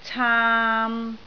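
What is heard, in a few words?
"caam3", Cantonese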